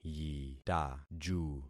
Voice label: "yitaju", concat syllables; Affixing experiment

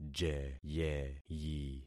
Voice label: "jeyeyi", concat syllables; Affixing experiment

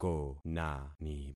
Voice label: "konani", concat syllables; Affixing experiment

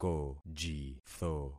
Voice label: "kojitho", concat syllables; Affixing experiment

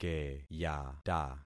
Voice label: "keyata", concat syllables; Affixing experiment

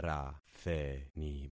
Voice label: "ratheni", concat syllables; Affixing experiment

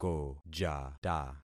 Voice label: "kojata", concat syllables; Affixing experiment

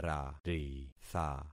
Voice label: "raritha", concat syllables; Affixing experiment

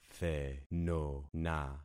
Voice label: "thenona", concat syllables; Affixing experiment

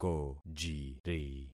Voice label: "kojiri", concat syllables; Affixing experiment

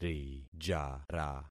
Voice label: "rijara", concat syllables; Affixing experiment

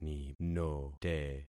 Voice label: "ninote", concat syllables; Affixing experiment

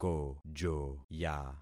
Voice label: "kojoya", concat syllables; Affixing experiment

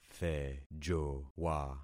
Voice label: "thejowa", concat syllables; Affixing experiment

